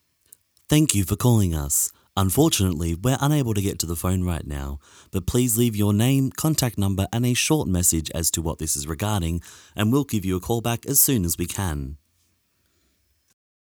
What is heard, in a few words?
voicemail